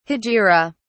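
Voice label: "hegira", British English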